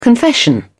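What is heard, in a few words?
"confession", British English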